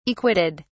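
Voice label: "acquitted", British English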